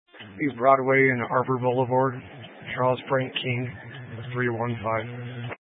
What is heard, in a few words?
Sheriff Dispatch, scanner; Mesa County